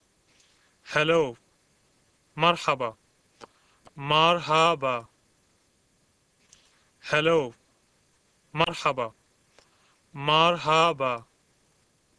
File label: Arabic Hello; マルハバ; アラビア語; こんにちは